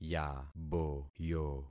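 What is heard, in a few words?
"yaboyo", concatenated syllables; Affixing experiment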